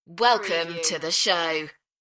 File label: UK female; beanstalk audio